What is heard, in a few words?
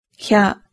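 "hya", Japanese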